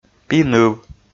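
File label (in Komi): "пи-ныв", Komi, male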